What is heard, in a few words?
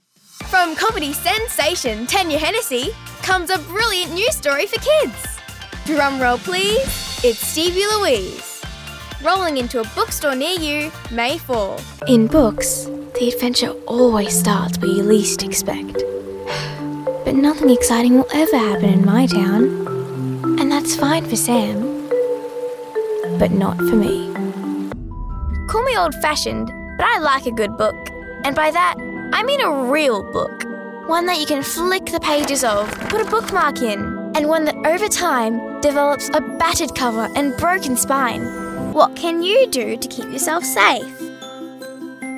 Voice Actor; BigMouthVoices